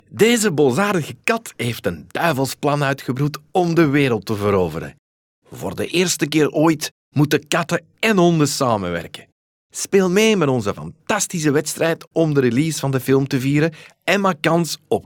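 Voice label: Dutch General Flemish; GoLocalise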